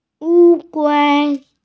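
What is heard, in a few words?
"wu1gui1", Mandarin Chinese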